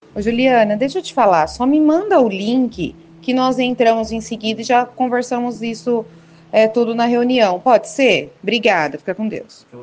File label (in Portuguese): nota de voz